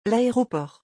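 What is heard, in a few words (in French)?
"l'aeroport", French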